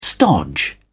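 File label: "stodge", English, British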